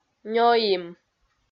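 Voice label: "нёим", Komi, female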